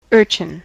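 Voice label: "urchin", US English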